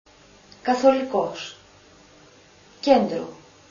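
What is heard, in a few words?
"kapa", Greek alphabet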